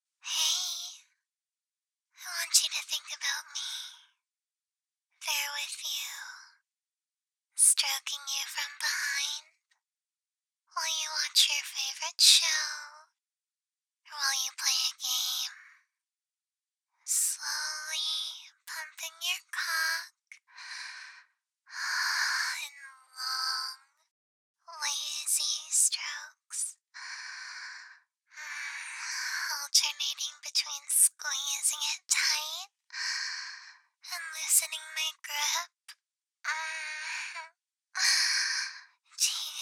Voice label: VOICEMAIL